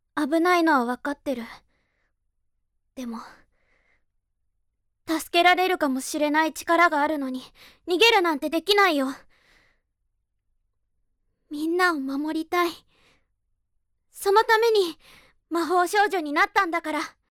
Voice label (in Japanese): アニメ